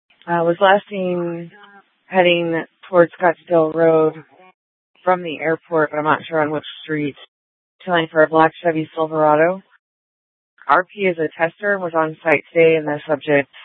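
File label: police dispatch, Scottsdale PD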